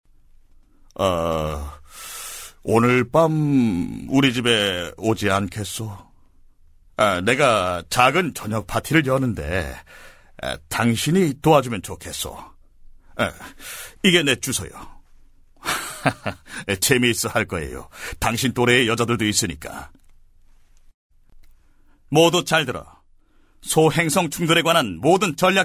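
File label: Korean, male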